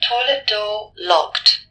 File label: Vocal Toilet Door Locked Female